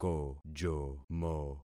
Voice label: "kojomo", concat syllables; Affixing experiment